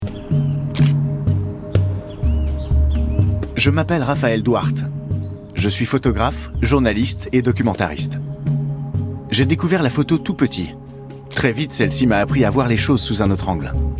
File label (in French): Narration Documentaire Voix; Brésil; Rio la magnifique